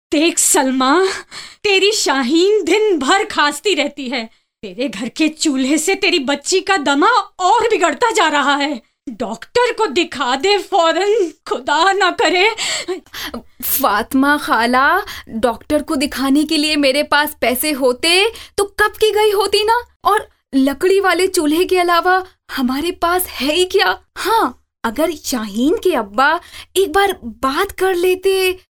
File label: female